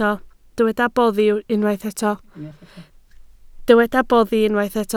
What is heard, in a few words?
"boddi", Welsh